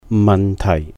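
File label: "mahn tàih", question, problem, issue, Cantonese; 問題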